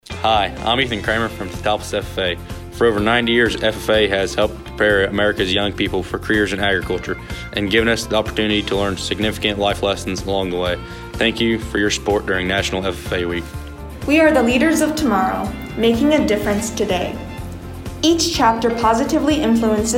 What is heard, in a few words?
male female; teutopolis ffa; ffa week 2021